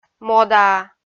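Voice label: "модаа" Komi, female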